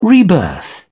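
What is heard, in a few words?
"rebirth", British English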